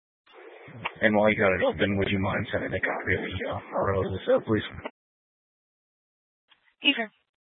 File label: Sheriff Dispatch; Mesa County